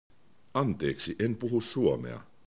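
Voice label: "suomi", Finnish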